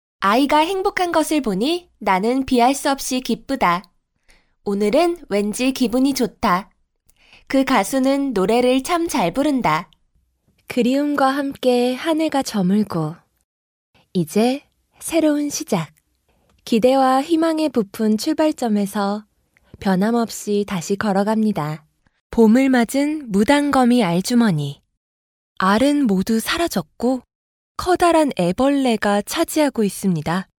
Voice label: Korean Voice Sample